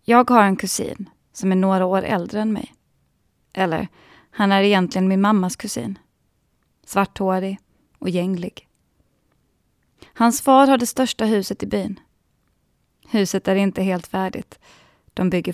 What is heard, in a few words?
Narration Swe, female voices